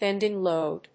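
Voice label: "bending load", English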